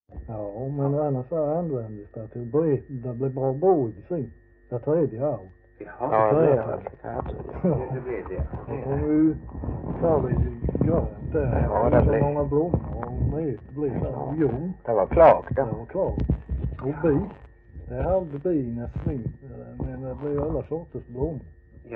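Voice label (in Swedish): Glimåkra Skåne, Svenska sagor dialekter; Folkminnen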